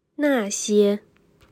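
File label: "那些", Chinese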